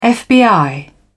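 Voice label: "fbi", British English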